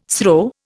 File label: "SRO", Indian